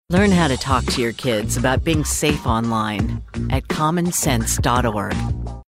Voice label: Dry